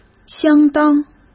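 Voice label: "相当", Mandarin Chinese; chinese flashcards; hsk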